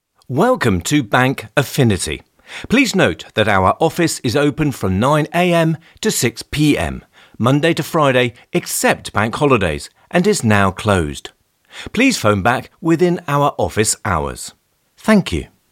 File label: IVR